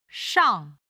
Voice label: "shang4", Chinese

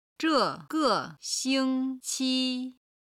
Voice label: "zhe4ge5xing1qi1", Chinese

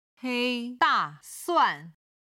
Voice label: "hei1da4suan4", Chinese

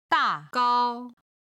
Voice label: "da4 gao1", Chinese

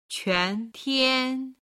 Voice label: "quan tian", Chinese

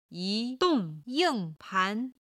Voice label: "yi2 dong4 ying4 pan2", Chinese

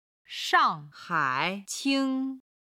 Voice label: "shang4 hai3 qing1", Chinese

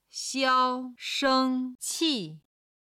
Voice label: "xiao1sheng1qi4", chinese

